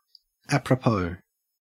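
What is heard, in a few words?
"apropos", Australian English; pronunciations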